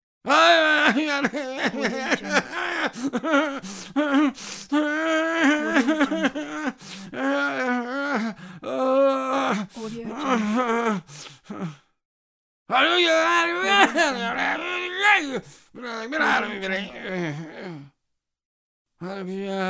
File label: crazy man ranting